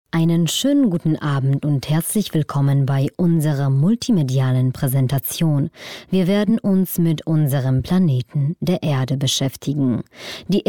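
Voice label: german female narration; voice demos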